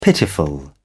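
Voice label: "pitiful", British English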